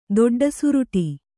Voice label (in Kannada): "ದೊಡ್ಡಸುರುಟಿ", Kannada